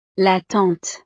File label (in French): "la tante", frances; familia